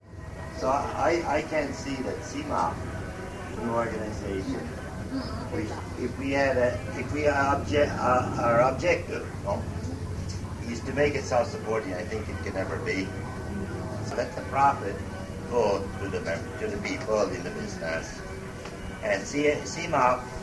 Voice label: Speaking Broken Visayan Dialect